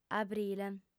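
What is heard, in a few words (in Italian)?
"abrile", sarda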